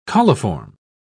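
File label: "coliform", British English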